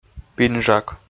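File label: "пинжак", Komi, male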